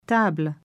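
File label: "Table", French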